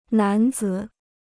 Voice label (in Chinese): "男子", Chinese